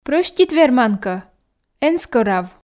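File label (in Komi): прӧстит верман кӧ эн скӧрав, Komi, female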